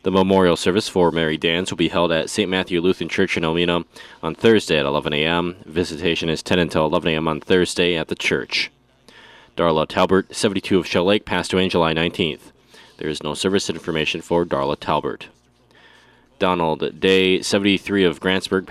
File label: Funeral Announcements